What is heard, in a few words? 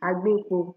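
"agbekpo", Nigerian Pidgin, female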